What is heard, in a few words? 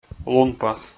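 "лунпас", Komi, male; kpv audio dict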